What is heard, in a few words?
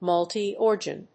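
"multi-organ", English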